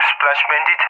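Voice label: "splash bandit", English speech; Allied Flight; DCS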